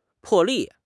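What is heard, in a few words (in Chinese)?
"破例", Chinese; HSK6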